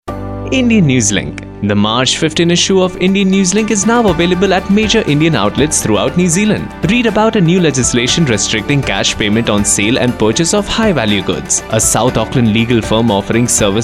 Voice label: Radio Promo; Radio Tarana